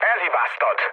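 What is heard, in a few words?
Hungarian bomb failure mood low, speech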